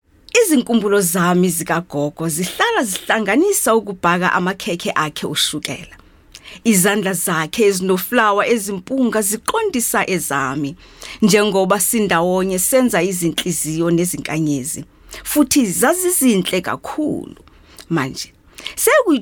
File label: Zulu Conversational